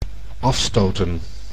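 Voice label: "afstoten", Dutch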